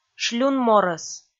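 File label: "шлюн морӧс", Komi, female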